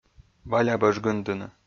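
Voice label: "балябӧж гындыны", Komi, male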